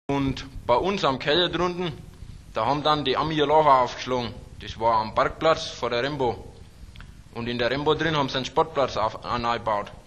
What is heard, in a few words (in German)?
Ostfraenkisch; Sprachbsp; Oberdeutsch